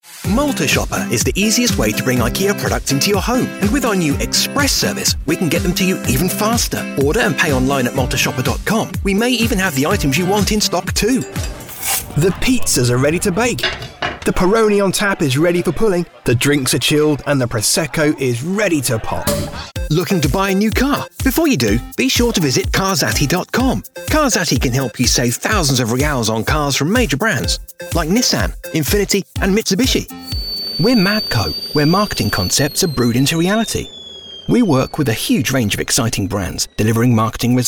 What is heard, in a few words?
Radio Commercial